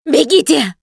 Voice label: yell run, Survivor Voice; russian; Producer

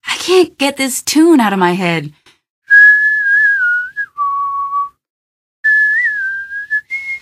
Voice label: question, female; Garry's Mod; hl2

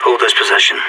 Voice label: radio bot hold

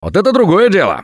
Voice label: Take Frying Pan, russian; Gambler

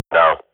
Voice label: radio bot reponse negative, Counter-Strike swat; Global Offensive; player vo

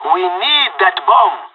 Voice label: spotted loose bomb, pirate; Counter-Strike Global Offensive

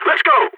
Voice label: radio lets go, balkan; Counter-Strike Global Offensive

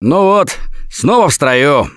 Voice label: pain relief first aid, russian, Survivor Voice

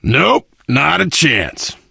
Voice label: initial meeting, survivor voice biker

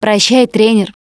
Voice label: Survivor Mourn, russian; Voice; Producer; Coach

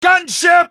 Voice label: gunship, male; npc; Garry's Mod; hl2

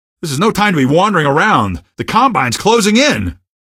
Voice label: citizen greet, male; Garry's Mod; hl2; odessa

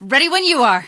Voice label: ready when you are, female; npc; Garry's Mod; hl2

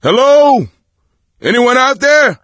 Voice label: call for rescue, voice; Left 4 Dead 2; coach

